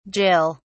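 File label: "jill", British English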